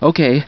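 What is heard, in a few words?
"okay", female voice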